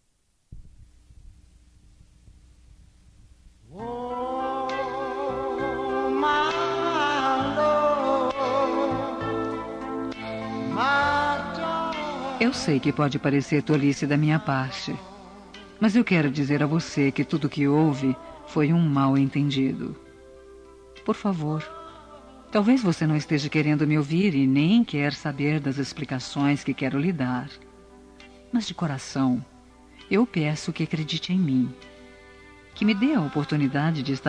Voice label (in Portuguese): ROM DESCULPA ME DE OUTRA CHANCE FEM, Telemensagens; Desculpas; Telemensagens 2017